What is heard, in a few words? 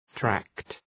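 "tract", English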